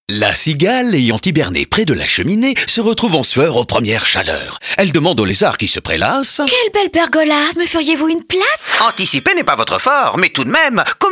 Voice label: radio; MrStore; portes Ouvert 9 au 19 mars

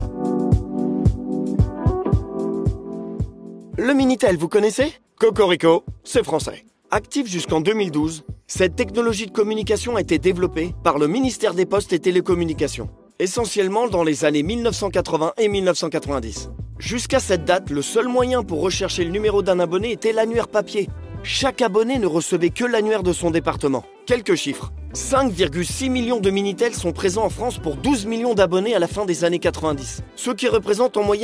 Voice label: Spot; le Minitel

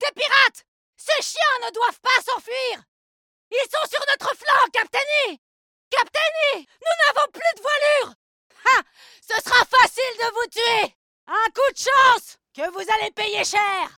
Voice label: Jeu video PIRATE

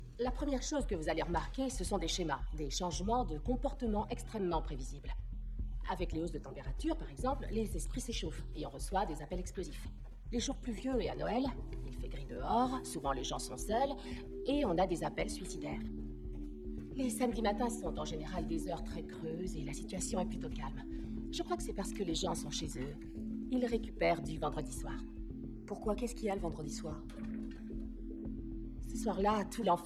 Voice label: doublage; Halle Berry; The call

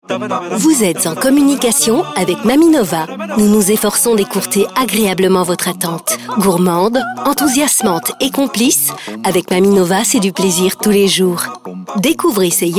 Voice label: TELEPHONIE MAMIE; NOVA

